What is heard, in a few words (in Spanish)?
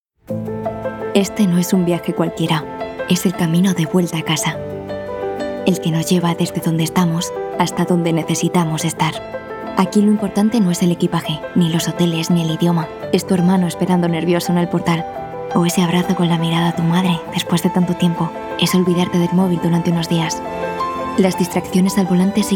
Testimonial